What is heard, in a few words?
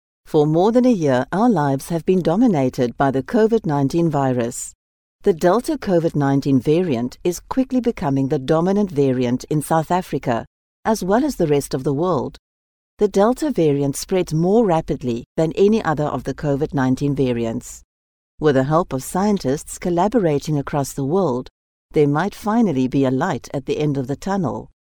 Explainer English